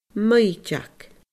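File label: "maighdeag", Scottish Gaelic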